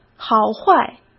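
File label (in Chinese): "好坏", Mandarin; chinese flashcards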